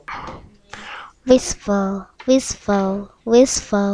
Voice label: whisper